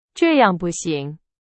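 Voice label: Chinese, example sentence; hsk2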